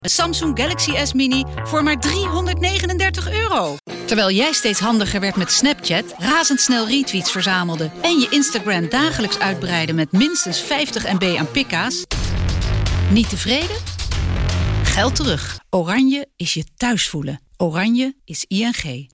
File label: commercials